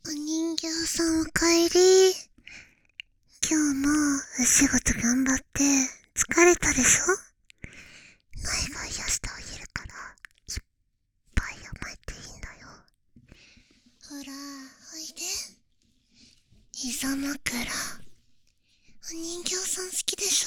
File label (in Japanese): 癒しシチュエーションボイス